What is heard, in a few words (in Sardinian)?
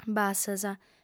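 "bassas", female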